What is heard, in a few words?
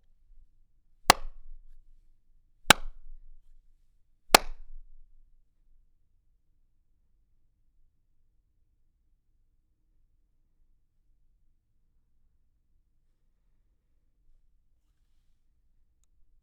RAW Booth Sample